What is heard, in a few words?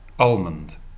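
"almond", British English, male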